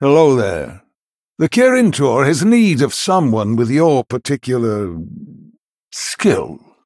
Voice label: Male Human Bark; Khadgar

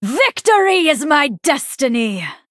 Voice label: Female Night Elf Win Streak

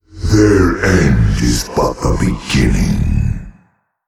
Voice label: Male Old God

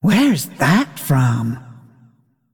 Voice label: Female Dragon Trigger Cosmic Anomaly

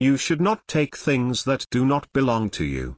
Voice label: Male Tauren; Guff; Scabbs

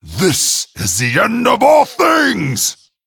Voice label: Male Dragon Concede

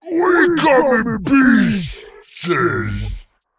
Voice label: Male Abomination Play

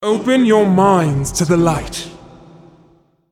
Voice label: Female Titan Trigger

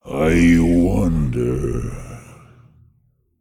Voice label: Demon, Thinking

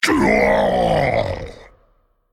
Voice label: Male Colossus Death

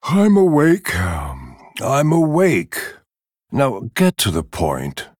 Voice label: Male Night Elf Bark; Malfurion Stormrage

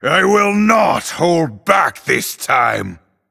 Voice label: Male Night Elf Start

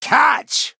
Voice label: Male Troll Attack

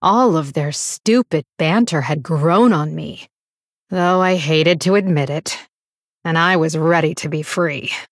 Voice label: Female Blood Elf

